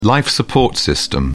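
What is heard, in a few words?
"life-support system", British English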